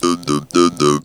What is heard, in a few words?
"DODODOD", funky vocals; USB Soundscan vol.18; AKAI; TB MELODY